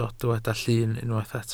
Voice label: "llyn", Welsh

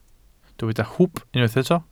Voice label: "hwb", Welsh

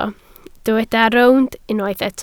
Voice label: "rownd", Welsh

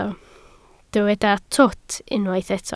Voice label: "twt", Welsh

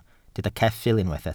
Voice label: "ceffyl", Welsh

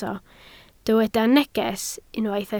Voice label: "neges", Welsh

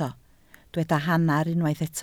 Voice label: "hanner", Welsh

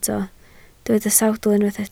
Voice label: "sawdl", Welsh

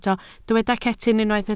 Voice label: "cetyn", Welsh

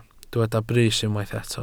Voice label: "brys", Welsh

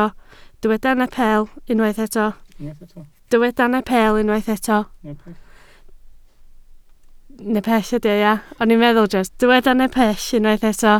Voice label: "nepell", Welsh

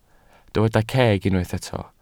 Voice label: "ceg", Welsh

